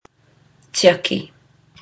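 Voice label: "tiaki", Māori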